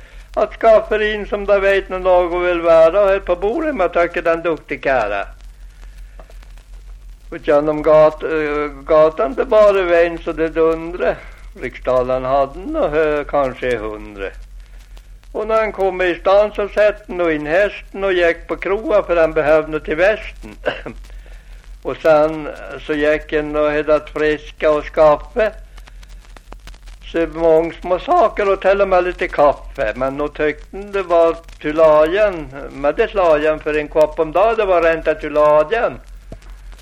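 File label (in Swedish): Aspås Jämtland, dialekter, Svenska sagor; Folkminnen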